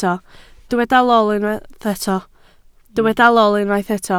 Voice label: "lol", Welsh

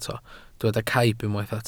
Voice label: "caib", Welsh

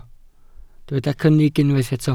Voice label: "cynnig", welsh

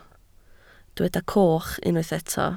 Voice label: "coch", Welsh

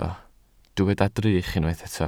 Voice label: "drych", Welsh

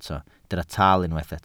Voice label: "taal", Welsh

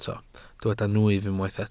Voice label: "nwyf", welsh

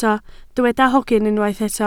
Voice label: "hogyn", Welsh

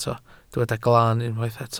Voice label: "glan", Welsh

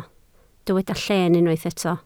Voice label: "lleen", Welsh

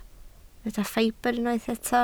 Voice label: "ffibr", Welsh

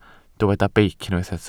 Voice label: "beic", Welsh

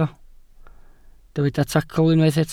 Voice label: "tacl", Welsh

